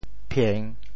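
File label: "phieng3", Teochew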